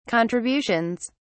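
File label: "contributions", British English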